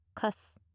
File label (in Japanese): カス・近・右, ASMR